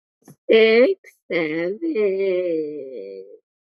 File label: weird voice